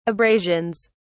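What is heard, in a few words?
"abrasions", English, British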